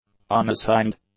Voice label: "unassigned", English; adjectives

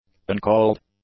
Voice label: "uncalled", English; adjectives

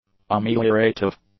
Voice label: "ameliorative", English; adjectives

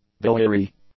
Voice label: "biliary", English; adjectives

